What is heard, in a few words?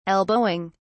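"elbowing", British English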